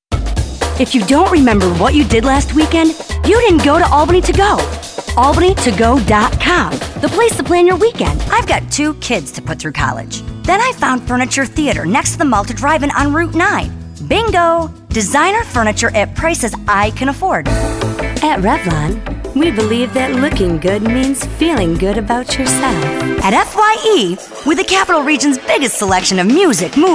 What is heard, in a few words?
Voice Over